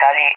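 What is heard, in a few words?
"tally" English, speech; Messages; DCS; Allied Flight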